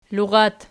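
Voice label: "lurat" nominative, Archi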